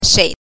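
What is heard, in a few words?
"shei", neutral tone, Mandarin Chinese pinyin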